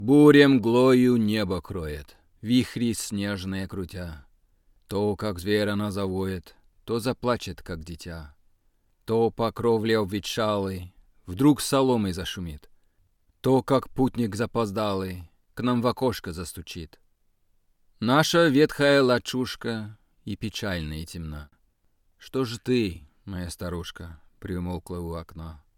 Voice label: Russian